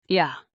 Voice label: fem